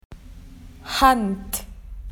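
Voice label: "hand", Australian English; PictureDictionary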